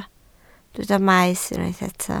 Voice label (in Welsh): "maes" Welsh